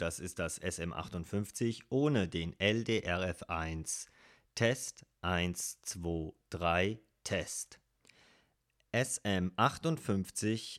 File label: shure sm58; ld systems ldrf1 testbericht